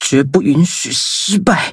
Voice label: defeat, zh-hant; Cookie Run